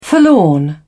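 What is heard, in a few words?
"forlorn", British English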